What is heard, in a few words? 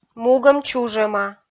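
"мугӧм чужӧма" Komi, female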